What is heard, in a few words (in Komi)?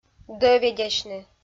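"дӧведясьны", Komi, female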